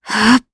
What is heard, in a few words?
Vox Casting, jp; Valance; kings raid